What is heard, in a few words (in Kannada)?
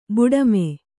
"ಬುಡುಮೆ", Kannada